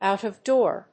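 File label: "out-of-door", English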